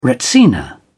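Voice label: "retsina", British English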